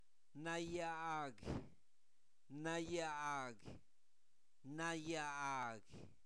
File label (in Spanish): frío, Zapoteco, santo domingo albarradas; adjetivos; INALI